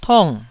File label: "tong4", taiwanese Syllables